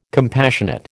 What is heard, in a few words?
"compassionate", American